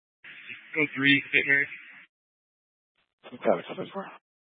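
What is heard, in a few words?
Fire Dispatch, scanner audio recording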